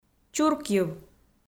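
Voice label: "чуркйыв", Komi, female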